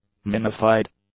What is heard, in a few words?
"minified", English; adjectives